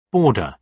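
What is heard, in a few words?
"border", British English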